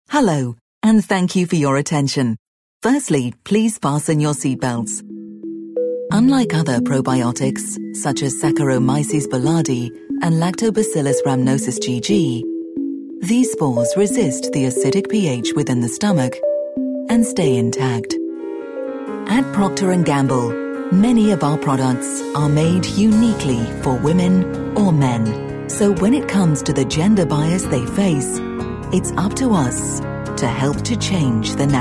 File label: corporate